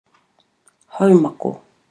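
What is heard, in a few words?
"haumako", Māori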